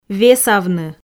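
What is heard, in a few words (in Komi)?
"весавны", Komi, female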